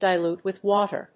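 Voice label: "dilute with water", English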